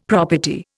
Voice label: "property", Indian; Dictionary